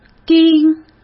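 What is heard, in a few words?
"钉", Mandarin Chinese; chinese-flashcards; hsk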